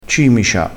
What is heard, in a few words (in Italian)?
"cimicia", crespinese